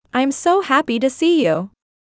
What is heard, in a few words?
Cheerful